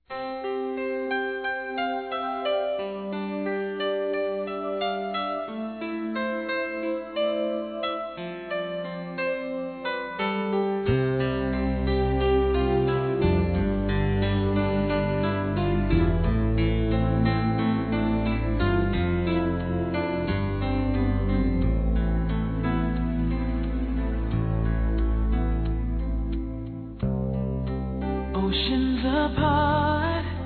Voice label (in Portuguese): Quer Namorar comigo, Telemensagens, fem; Conquistas Cantadas paqueras; Telemensagens 2017